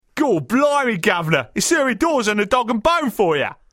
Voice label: Cockney